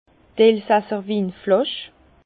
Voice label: "elsasser", Bas Rhin

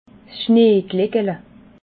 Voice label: "schneegleckele", alsace

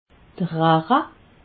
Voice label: "rache", alsace

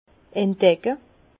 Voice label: "entdecke", Bas Rhin; vin; découvrir

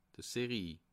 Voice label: "serie", alsace

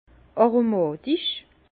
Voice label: "aromatich", Bas Rhin; vin; aromatique

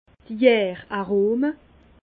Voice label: "jar arome", Bas Rhin; vin; arômes fermentaires

